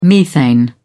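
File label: "methane", English, British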